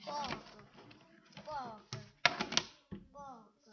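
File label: "bog", spoken word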